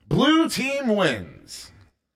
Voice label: Wins Blue Team, Announcer